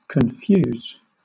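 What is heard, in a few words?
"confuse", British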